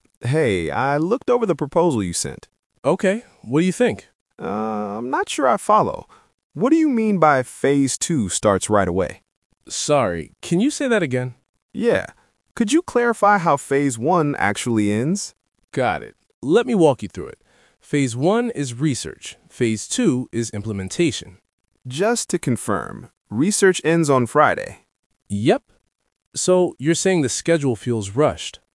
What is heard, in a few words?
Conversational patterns dialogue